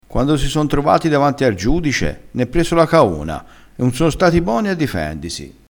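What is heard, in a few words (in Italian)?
"caona", dialettale crespinese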